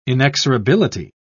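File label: "inexorability", British English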